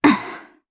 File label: Landing, jp; heroes; Valance; kingsraid